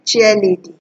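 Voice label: "chairlady", Nigerian Pidgin, female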